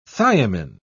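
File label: "thiamin", British English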